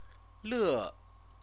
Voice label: "le", Chinese; CTCFL; University of Oxford